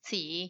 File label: "tsii" diez ten